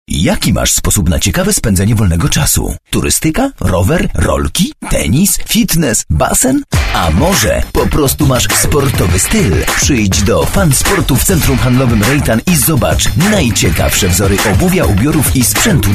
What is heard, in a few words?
COMMERCIALS